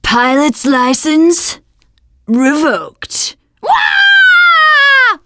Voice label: pilot comment, teengirl, voice

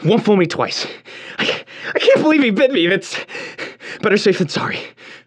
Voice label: radio initial broadcast; Left 4 Dead 2; left4dead2 dlc3; churchguy; npc

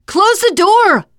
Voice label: close the door, teen girl; player; survivor; voice

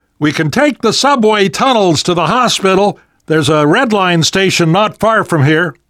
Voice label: world hospital, namvet, survivor voice

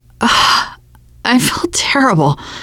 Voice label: going to die, teengirl, voice; player; survivor

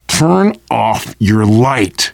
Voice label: kill that light, voice, biker; Left 4 Dead 2; left4dead2 dlc3; survivor

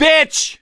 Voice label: reaction negative, voice; gambler

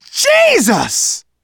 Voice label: violence awe, survivor voice manager; Left 4 Dead 2; left4dead2 dlc2

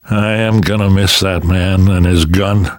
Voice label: grief biker, survivor voice namvet; Left 4 Dead 2; left4dead2 dlc2

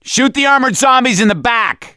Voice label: see armored, voice; gambler

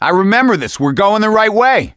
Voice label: misc directional, voice; gambler

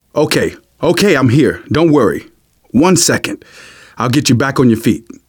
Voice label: revive friend, survivor voice manager; Left 4 Dead 2; left4dead2 dlc3

